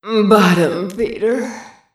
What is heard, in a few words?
"bottom feeder", female; quake